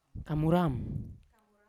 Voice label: "kamuram" young, Yawa

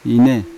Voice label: "ine" flesh, Yawa

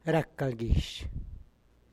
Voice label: get sick, San Lucas Quiaviní Zapotec; rac gal gyizh; enfermarse

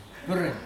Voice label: "will be", Mokilese

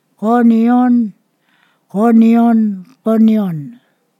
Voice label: "there", Ponosakan

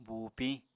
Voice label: "buupiñ", Apatani

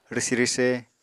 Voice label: "rwsi-rwse", Apatani

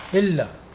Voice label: "high", Mokilese

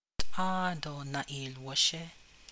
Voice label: navajo; Don't keep falling asleep

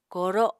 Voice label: "kooro", Apatani

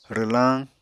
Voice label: "rwlañ", Apatani

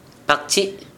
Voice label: "bagtʃi[ʔ]", Gta, Dialect: Hill; broken

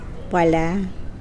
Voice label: Mokilese chest

